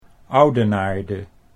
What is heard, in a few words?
"Oudenaarde", Dutch